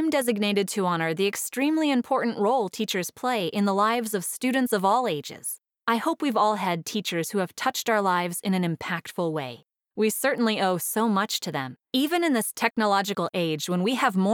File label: e Learning